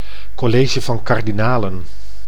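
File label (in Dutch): "college van kardinalen", Dutch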